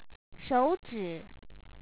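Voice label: "sho3uzhi3", Chinese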